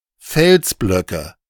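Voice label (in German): "Felsblöcke", German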